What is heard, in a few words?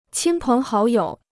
"亲朋好友", Chinese